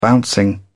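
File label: "bouncing", British English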